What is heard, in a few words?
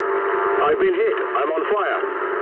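English on fire mood low; speech; War Thunder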